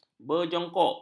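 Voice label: "berjongkok", Malay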